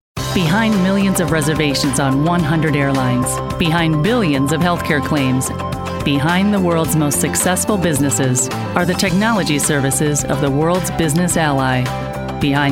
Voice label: ENGLISH COMMERCIAL REEL HOT